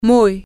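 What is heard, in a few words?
very, Spanish, female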